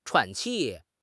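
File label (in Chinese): "喘气", Mandarin Chinese; HSK6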